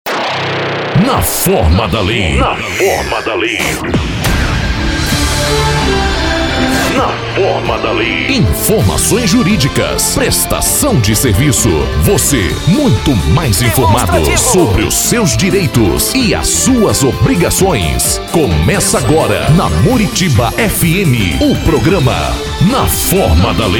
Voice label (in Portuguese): abertura para programa; na forma da lei